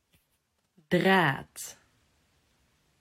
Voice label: "Draad", Dutch